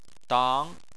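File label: "dang" second tone, Chinese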